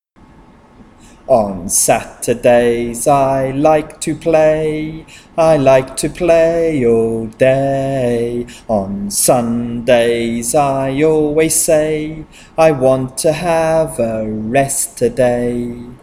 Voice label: Medial Long Vowels Chant ay